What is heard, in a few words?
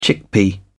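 "chickpea", British English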